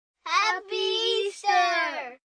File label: Group Easter, Occasion, Group, 2-4 children; Crowd Sound Effects; VoiceBox; Twistedtracks